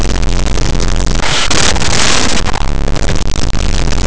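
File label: "cerrar", Spanish; laboratori fonetica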